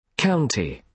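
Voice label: "county", British English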